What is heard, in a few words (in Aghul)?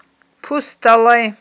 "пустталай", Aghul